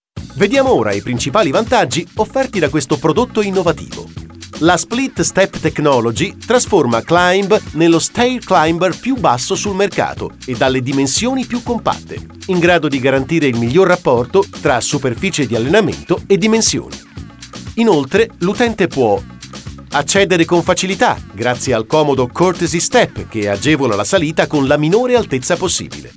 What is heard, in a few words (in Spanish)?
Masculino